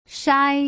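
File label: "shai", Mandarin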